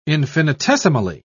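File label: "infinitesimally", British English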